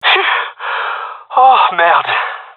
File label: close call, male; vo